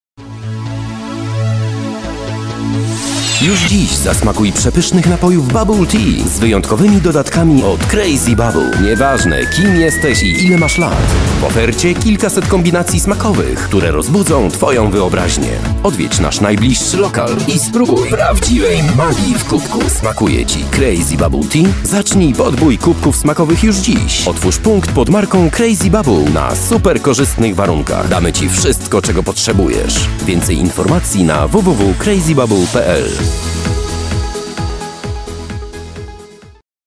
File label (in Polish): reklama; bubble